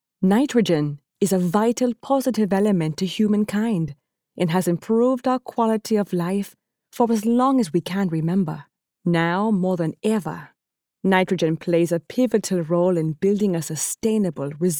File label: 7 Accents